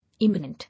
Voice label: "Imminent", English